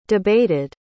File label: "debated", pronounce